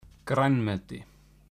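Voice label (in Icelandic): "grænmeti", Icelandic; matarhefti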